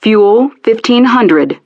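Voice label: "Fuel 500", English; DCS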